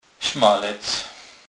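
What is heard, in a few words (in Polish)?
"smalec", Kurpie dialect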